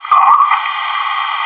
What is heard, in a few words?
pain, bot